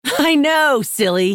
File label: female orc greetings